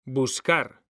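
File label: "buscar", Spanish, male; mekanta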